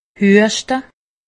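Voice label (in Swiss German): "hueschte", Alsatian; medecine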